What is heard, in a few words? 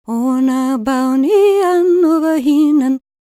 WELSH F, World Voices